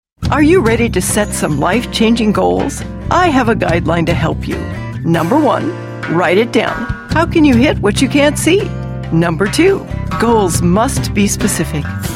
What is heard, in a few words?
english american voice over female commercial